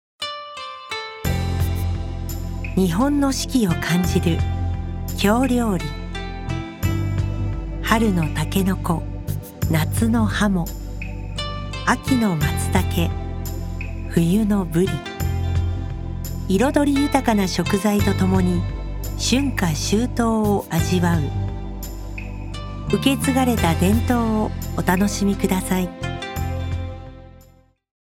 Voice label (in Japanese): 京料理ナレーション